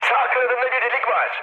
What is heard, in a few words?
right wing, mood high, speech tur; War Thunder